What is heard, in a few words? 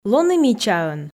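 "лоны мичаӧн", Komi, female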